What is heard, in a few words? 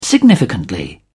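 "significantly", English, British English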